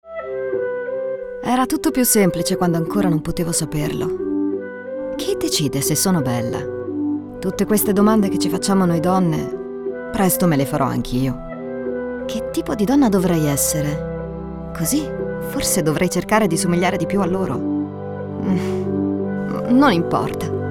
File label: female voices; SEPHORA